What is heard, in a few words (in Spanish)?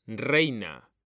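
"REINA", Spanish; mekanta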